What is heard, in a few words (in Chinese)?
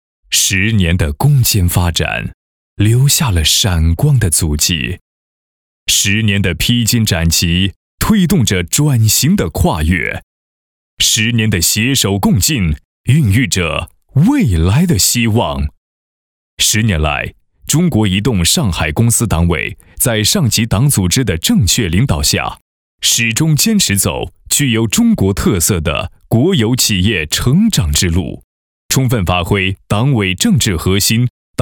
男 企业党建工作 十年党建工作; 中国移动上海公司